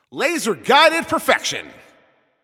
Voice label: Announcer Especially Good Shot